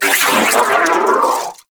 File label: Vocal Death; Warframe; Sentients; Battalyst